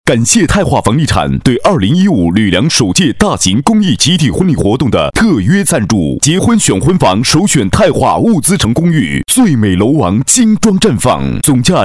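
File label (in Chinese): 【广告】男-激情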